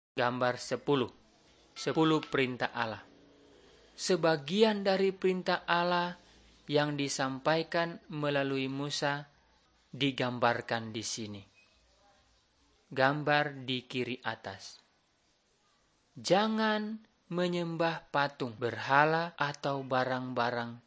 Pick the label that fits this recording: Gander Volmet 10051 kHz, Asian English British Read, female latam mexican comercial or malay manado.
malay manado